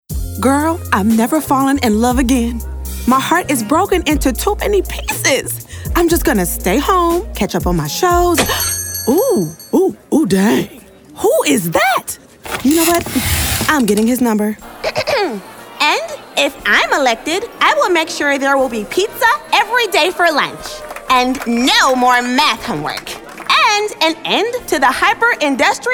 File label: vo animation